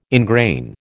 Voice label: "ingrain", American

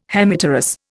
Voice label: "Hemipterous", Indian

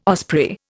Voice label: "osprey", Indian

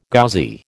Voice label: "Ghazi", American

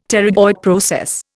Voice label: "pterygoid process", Indian; Dictionary

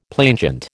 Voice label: "plainchant", American

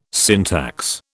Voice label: "syntax", British

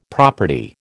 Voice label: "property", American

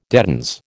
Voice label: "dedans", American

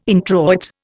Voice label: "introit", Indian

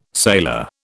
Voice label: "sailor", British

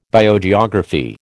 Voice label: "Biogeography", American

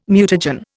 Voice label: "mutagen", Indian

